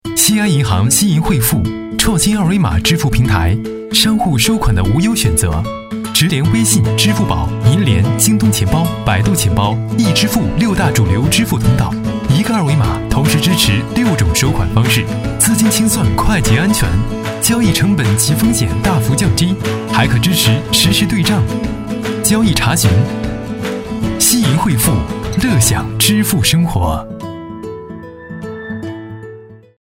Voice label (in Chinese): 男93-飞碟说; 西银惠付